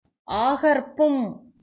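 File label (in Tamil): "ஆகர்ப்பும்", Tamil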